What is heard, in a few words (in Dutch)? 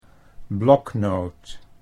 "bloknoot", Dutch